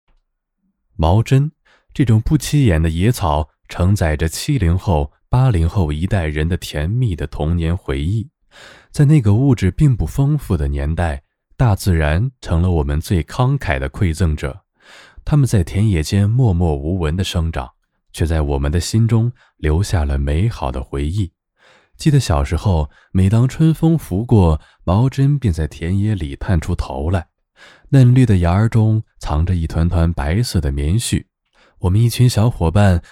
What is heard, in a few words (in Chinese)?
散文试音稿